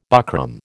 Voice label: "buckram", American